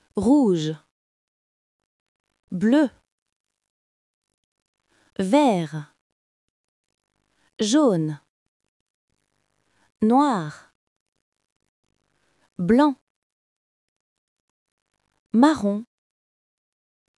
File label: color in french